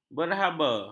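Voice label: "berhaba", Malay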